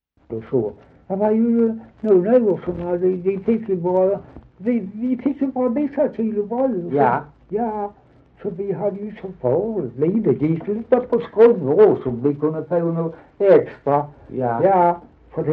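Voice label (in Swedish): Dalby Skåne, dialekter; Folkminnen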